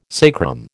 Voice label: "sacrum", American